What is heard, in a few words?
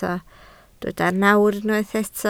"nawr", Welsh